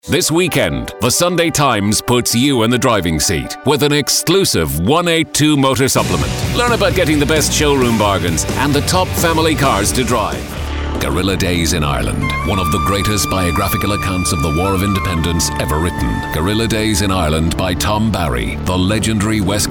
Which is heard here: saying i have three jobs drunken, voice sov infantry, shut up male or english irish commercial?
english irish commercial